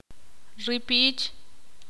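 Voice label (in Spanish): murciélago, ixil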